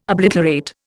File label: "obliterate", Indian